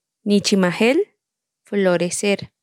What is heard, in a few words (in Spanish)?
Palabras en tsotsil, Nichimajel - Florecer